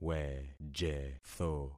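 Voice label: "wejetho", concatenated syllables; Affixing experiment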